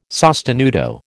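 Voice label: "sostenuto", American English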